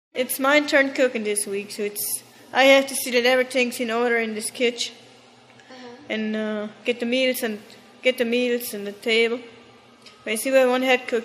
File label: German White Female 20s 30s